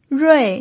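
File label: "rui" Mandarin Chinese, fourth tone, pinyin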